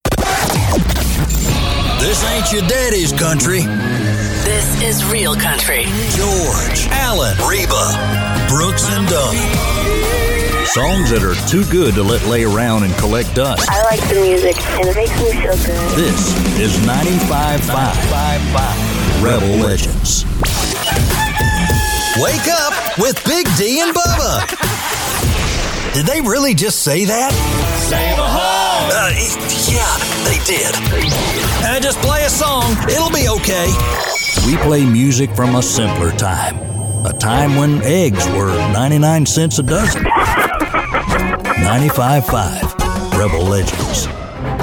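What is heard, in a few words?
US English, Radio; GoLocalise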